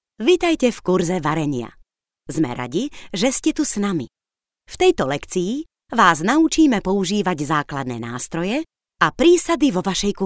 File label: slovakian voiceover locutora eslovaca